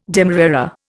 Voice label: "demerara", Indian